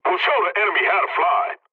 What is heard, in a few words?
pilot mission start, english; War Thunder